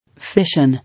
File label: "Fission", English